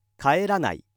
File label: male